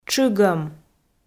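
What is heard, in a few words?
"тшыгӧм", Komi, female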